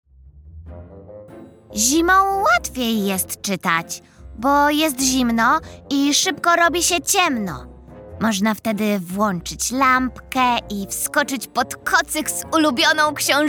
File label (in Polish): narracja; czasopismo mis; wiosna; wiosna rozmowa